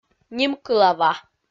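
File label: "нимкылава", Komi, female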